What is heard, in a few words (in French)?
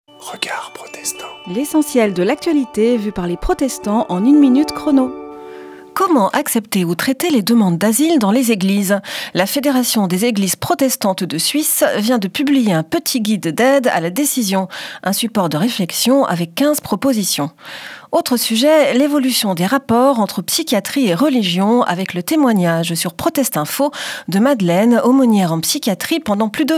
Spot radio; Regards protestants